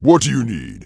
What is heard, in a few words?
Orc Male Standard NPC Greeting